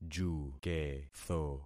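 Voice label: "juketho", concatenated syllables; Affixing experiment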